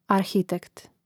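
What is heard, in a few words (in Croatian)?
"arhitekt", Hrvatski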